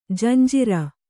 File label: "ಜಂಝಾರ", Kannada